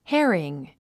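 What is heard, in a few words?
"herring", English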